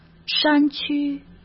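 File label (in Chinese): "山区", Mandarin; chinese-flashcards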